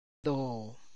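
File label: corn tassel, Tlacochahuaya Zapotec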